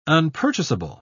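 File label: "unpurchasable", British English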